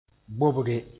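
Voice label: "bobge", Mooré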